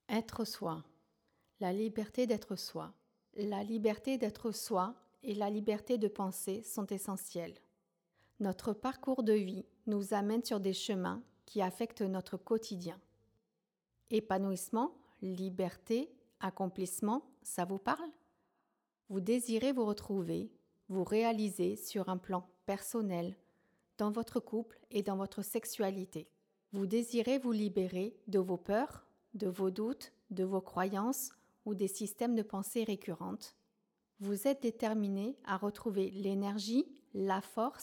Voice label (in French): voix femme en soi